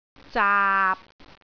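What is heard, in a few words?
"zaap6", Cantonese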